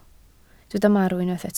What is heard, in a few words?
"marw", Welsh